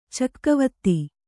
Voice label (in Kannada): "ಚೆಕ್ಕೆವತ್ತ", Kannada